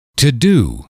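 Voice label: "to do", English, male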